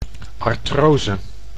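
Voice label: "artrose", Dutch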